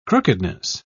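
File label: "crookedness", British English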